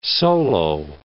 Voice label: "solo", English